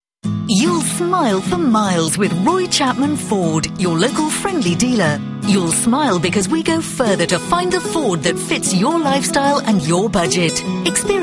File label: radio gold commercial